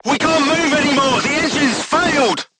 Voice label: voice message engine destroyed, crew tanks vietnamese